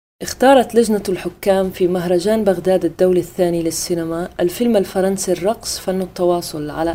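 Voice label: arab female voice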